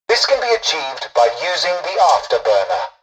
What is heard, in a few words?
tutorial dialogue, english; War Thunder